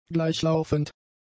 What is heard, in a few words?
"gleichlaufend", German; adjectives